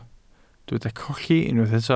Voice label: "colli", Welsh

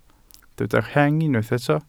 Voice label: "rheng", welsh

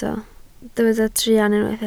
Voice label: "truan", Welsh

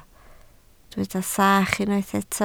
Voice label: "sach", Welsh

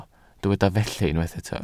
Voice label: "felly", Welsh

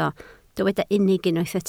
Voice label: "unig", Welsh

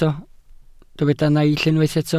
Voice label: "naill", welsh

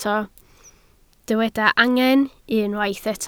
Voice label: "angen", Welsh

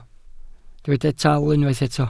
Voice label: "taal", Welsh

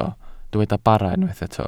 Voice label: "bara", Welsh

